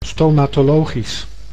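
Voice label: "stomatologisch", Dutch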